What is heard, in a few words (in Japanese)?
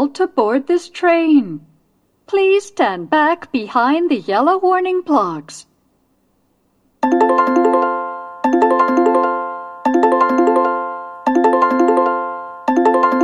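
sekkin pass, 接近放送 【女声】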